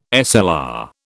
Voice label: "SLR", British